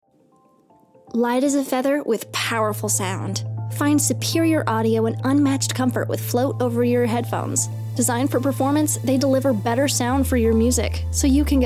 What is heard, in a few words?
commercial reel